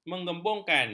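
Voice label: "menggembungkan", Malay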